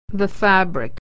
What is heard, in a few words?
"fabric", pronunciation